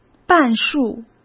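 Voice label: "半数", Mandarin Chinese; hsk; chinese-flashcards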